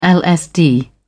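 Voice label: "lsd", British English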